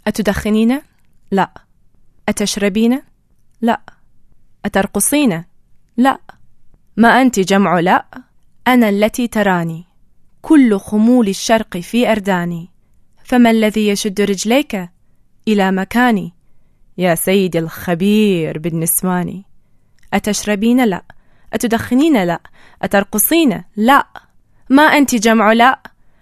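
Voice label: Arabic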